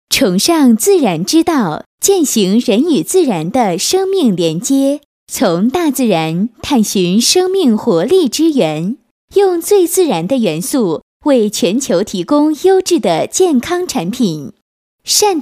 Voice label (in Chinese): 女 年轻甜美, 房地产广告; 善德国际